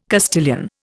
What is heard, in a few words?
"Castilian", Indian